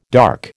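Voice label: "dark", American English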